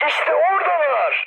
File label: enemy detected, mood high, speech tur